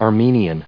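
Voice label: "Armenian", US English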